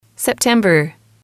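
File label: "september", English, female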